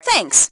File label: Team Thanks, Female; T2 Female 01